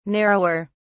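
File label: "narrower", British English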